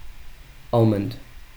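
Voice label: "almond", USA, male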